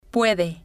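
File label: "puede", Spanish, female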